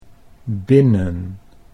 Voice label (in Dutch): "binnen", Dutch